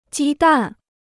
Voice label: "鸡蛋", Chinese

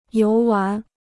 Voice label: "游玩", Chinese

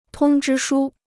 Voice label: "通知书", Chinese

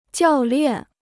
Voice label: "教练", Chinese

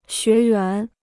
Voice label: "学员", Chinese

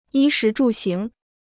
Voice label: "衣食住行", Chinese

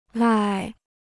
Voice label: "赖", Chinese

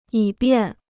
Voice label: "以便", Chinese

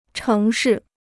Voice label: "城市", Chinese

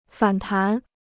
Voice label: "反弹", Chinese

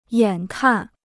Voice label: "眼看", Chinese

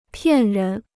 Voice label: "骗人", Chinese

